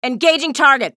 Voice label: chatter positive; athena; frag